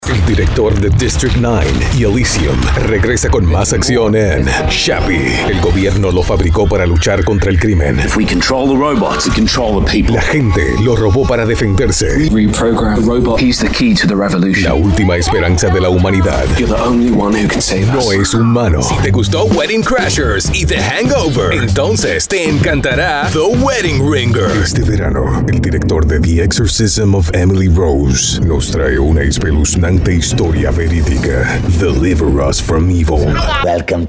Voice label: spanish trailers